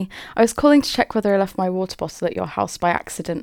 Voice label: Voice Message